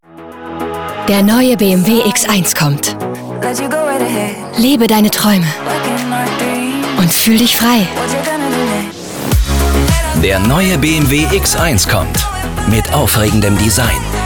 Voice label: Spots OFF, maenner; BMW X1

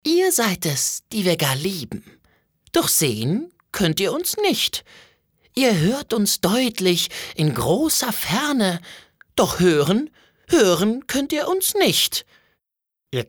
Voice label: charge, maenner

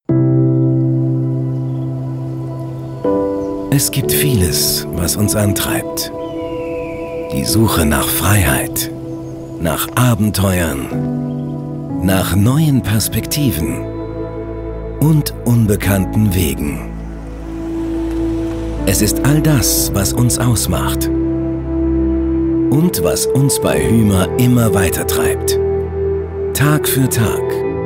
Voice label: Imagefilm; Hymer

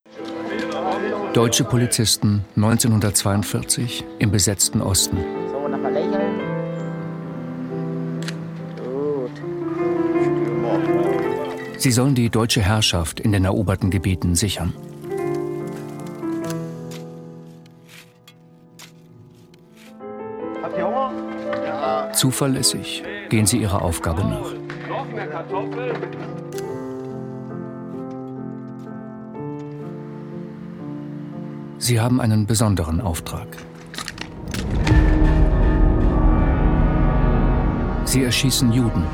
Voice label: Kommentar, Sprecher, Männer; Ganz normale Männer

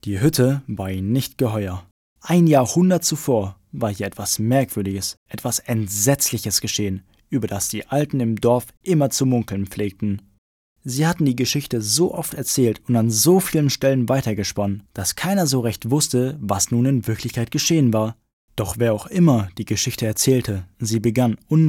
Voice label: jungen; Die Hütte

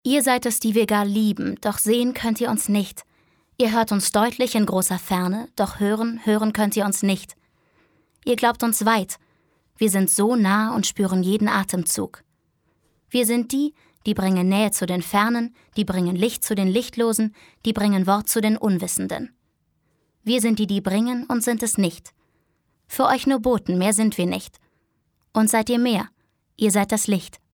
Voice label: sachlich, frauen

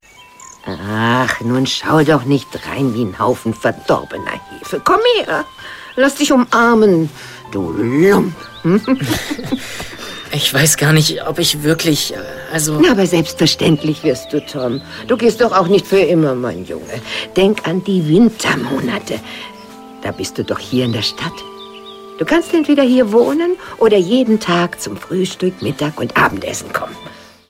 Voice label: Hörspiel, frauen; sprecher; Ghostsitter

